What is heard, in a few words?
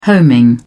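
"homing", British English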